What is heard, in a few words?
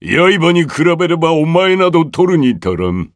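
victory, Japanese; 碎牙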